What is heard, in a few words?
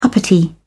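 "uppity", British English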